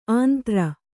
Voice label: "ಆಂಧ್ರ", Kannada

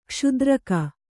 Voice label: "ಕ್ಷುದ್ರಕ", Kannada; kṣudraka; noun

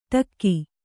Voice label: "ಢಕ್ಕೆ", Kannada

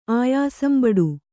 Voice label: "ಆಯಾಸಂಬಡು", Kannada

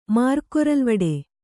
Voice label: "ಮಾರ್ಕೊರಲ್ವಡೆ", Kannada

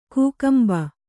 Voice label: "ಕಕ್ಕಂಬು", Kannada

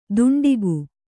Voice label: "ದುಂಡಿಗು", kannada

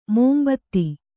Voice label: "ಮುಂಬೆದೆ", Kannada

